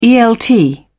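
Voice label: "elt", British English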